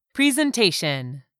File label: "presentation", English; 3000 words